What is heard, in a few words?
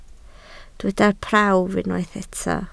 "prawf", Welsh